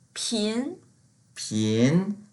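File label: "pin2", Mandarin Chinese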